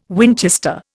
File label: "Winchester", Indian